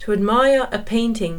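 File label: English; contempler un tableau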